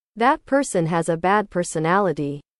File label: english